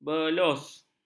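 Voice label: "belus", Malay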